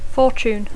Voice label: "fortune", English; fortuna; sort; destí